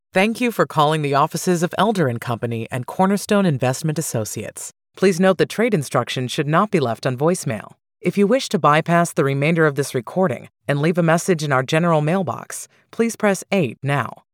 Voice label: Welcome message telephony warm friendly bright professional welcoming IVR; phone system